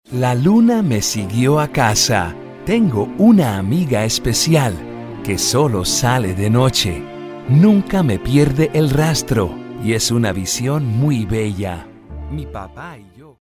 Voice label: storytellers